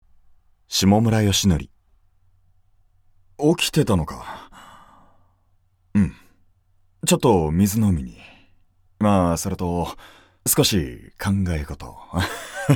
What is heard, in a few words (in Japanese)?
◆ナチュラル◆